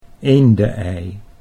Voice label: "eende ei", Dutch

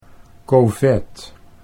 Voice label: "kovet", Dutch

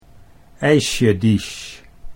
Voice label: "eisjediesj", Dutch

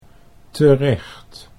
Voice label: "terecht", Dutch

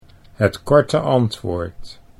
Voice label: "het korte antwoord", Dutch

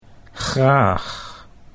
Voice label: "graag", Dutch

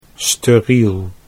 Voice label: "steriel", Dutch

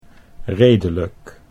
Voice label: "redelijk", Dutch

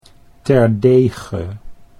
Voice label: "terdege", Dutch

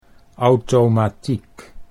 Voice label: "auwtomatiek", Dutch

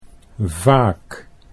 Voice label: "vaak", Dutch

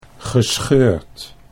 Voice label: "gescheurd", Dutch

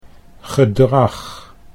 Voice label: "gedrag", Dutch

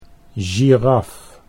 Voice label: "giraf", Dutch

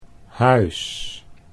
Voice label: "huis", Dutch

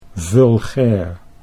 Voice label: "vulgair", Dutch

